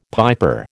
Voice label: "piper", American

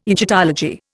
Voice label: "Egyptology", Indian

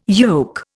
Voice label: "yoke", Indian

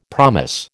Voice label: "promise", American

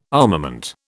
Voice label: "armament", British

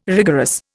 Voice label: "rigorous", Indian

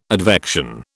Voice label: "advection", British

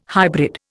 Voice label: "hybrid", Indian

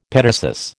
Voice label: "petasus", American

